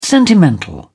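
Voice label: "sentimental", British English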